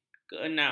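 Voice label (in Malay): "keenam", Malay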